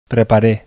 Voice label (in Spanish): acento lexical "preparee", bizkaia; spansk; fonetica; biskaia